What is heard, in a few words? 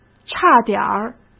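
"差点儿", Mandarin Chinese; chinese flashcards; hsk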